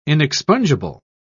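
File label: "inexpungible", British English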